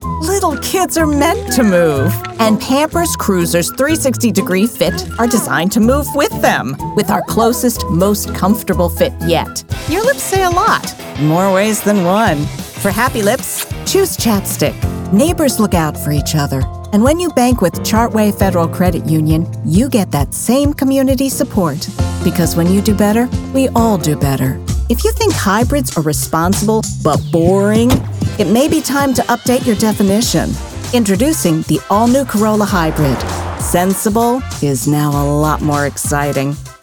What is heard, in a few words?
Commercial